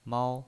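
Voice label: "mao", pinyin voice, Mandarin Chinese, first tone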